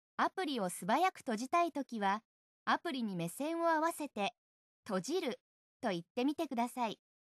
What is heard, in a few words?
close, ja-jp; Windows; HoloShell